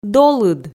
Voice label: "долыд", Komi

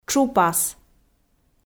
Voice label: "тшупас", Komi

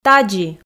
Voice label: "тадзи", Komi

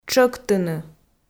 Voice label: "тшӧктыны", Komi

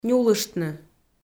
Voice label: "нюлыштны", Komi

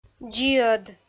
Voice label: "дзиӧд", Komi

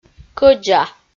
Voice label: "кӧдза" Komi

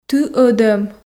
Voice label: "ты ӧдӧм", Komi

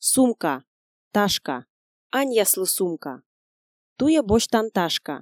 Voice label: сумка, ташка, Komi

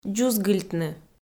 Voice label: "дзузгыльтны", Komi

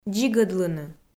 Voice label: "дзигӧдлыны", Komi

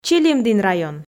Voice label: "чилимдін район", f

